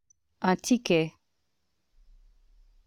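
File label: pronunciation "un ticket", French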